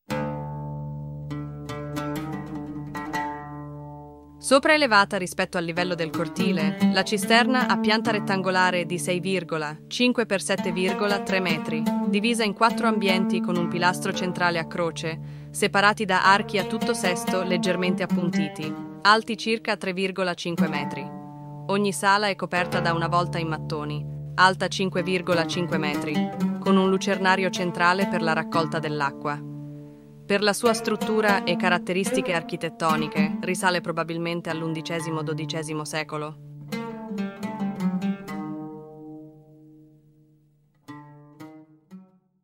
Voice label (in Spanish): audioguia italiano; Ruta audioguiada